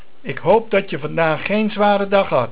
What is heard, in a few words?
Zware, Dutch